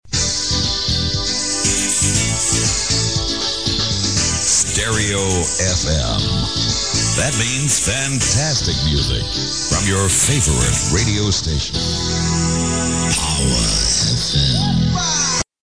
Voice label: stereo fm; jingles